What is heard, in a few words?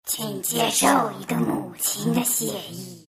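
thanks, chinese; dota2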